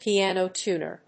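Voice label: "piano tuner", English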